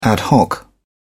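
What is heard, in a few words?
"ad hoc", English, British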